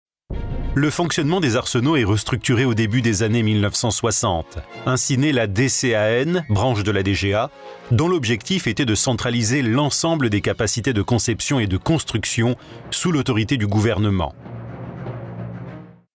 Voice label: DOCUMENTAIRE VOIX OFF

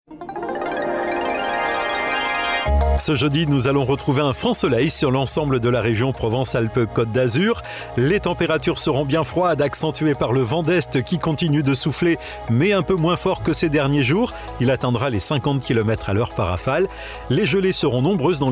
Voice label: météo voix off; radio

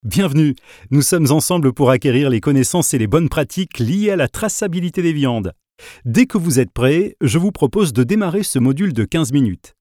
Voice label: e-learning voix off

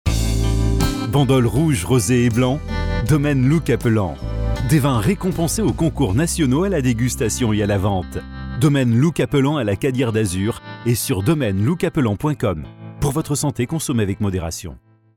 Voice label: PUB VOIX OFF

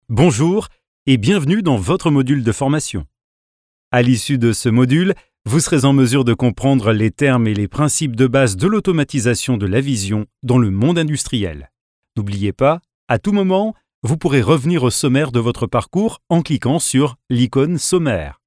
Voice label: e-learning voix off

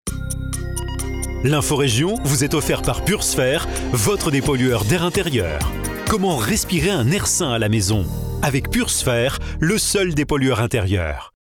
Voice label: sponsor voix off; radio

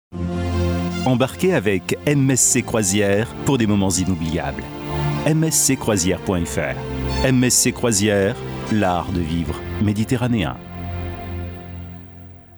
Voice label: NOTORIETE VOIX OFF; pub

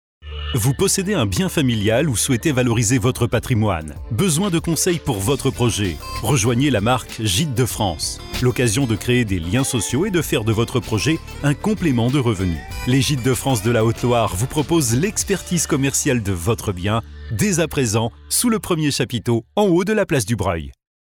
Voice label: NOTORIETE VOIX OFF; pub

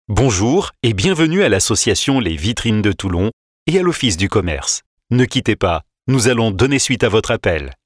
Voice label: répondeur voix off; audiotel

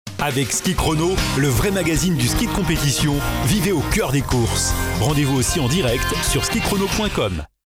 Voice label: PROMO VOIX OFF; pub

